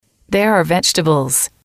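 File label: there are vegetables, female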